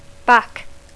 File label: "back", English